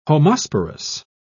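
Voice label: "homosporous", British English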